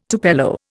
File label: "tupelo", Indian